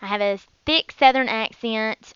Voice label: southern